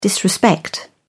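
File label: "disrespect", British English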